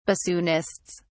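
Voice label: "bassoonists", British English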